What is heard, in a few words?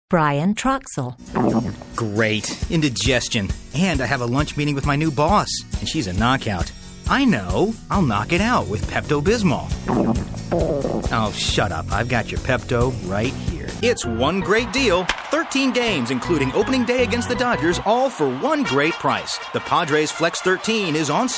commercial